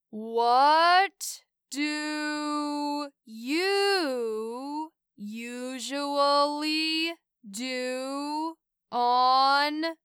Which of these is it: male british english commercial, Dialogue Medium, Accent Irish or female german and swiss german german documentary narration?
Dialogue Medium